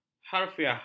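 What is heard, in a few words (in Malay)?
"harfiah", Malay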